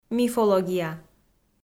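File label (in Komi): "мифология", Komi, female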